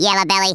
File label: "coward", Redneck